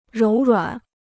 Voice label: "柔软", Chinese

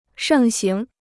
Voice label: "盛行", Chinese

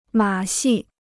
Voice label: "马戏", Chinese

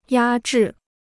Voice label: "压制", Chinese

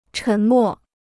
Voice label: "沉默", Chinese

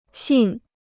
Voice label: "信", Chinese

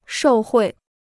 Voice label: "受贿", Chinese

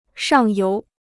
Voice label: "上游", Chinese

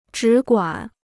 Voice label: "只管", Chinese

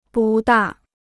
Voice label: "不大", Chinese

